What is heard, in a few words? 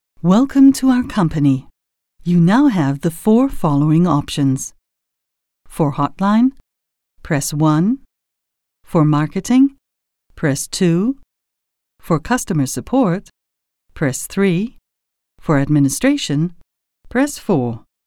en ivr